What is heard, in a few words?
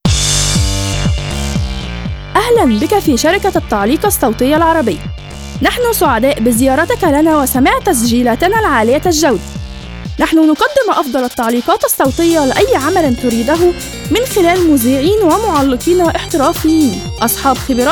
Female Arabic showreel; the arabic voice over company